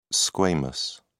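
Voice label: "squamous", English, British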